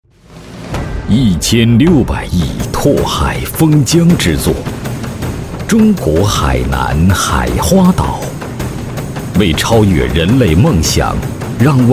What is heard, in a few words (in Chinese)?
男 誓师大会; 海花岛